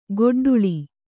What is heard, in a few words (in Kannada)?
"ಗೊಡ್ಡುಳಿ", Kannada